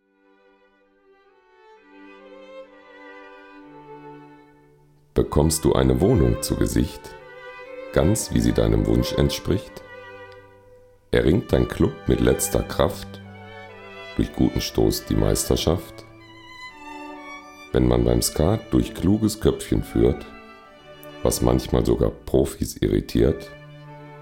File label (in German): Werbung; Asbach-Uralt